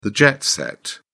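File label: "jet set", British English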